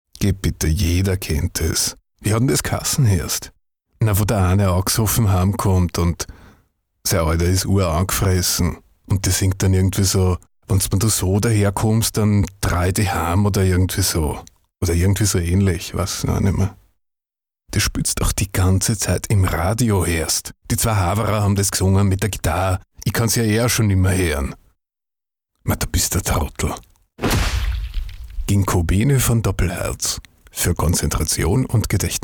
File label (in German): Mann